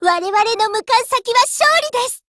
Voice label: warcry